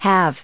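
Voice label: "have", US English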